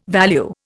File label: "value", Indian